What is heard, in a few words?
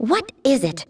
Female; baldurs1